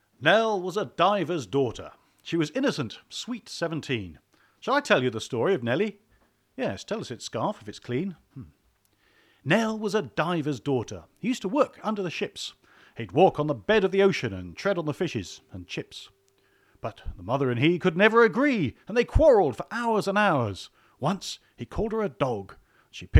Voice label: monologue sample